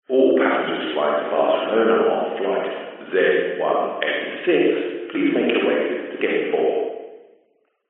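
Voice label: public places airport announcement male english says all passengers flying to barcelona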